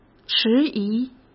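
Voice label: "迟疑", Mandarin Chinese; hsk; chinese flashcards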